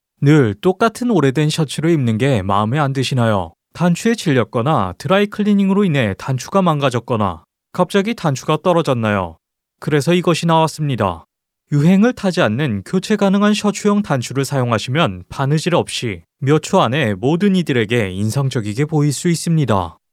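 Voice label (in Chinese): 韩语男_外语_小语种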